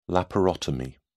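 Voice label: "laparotomy", British English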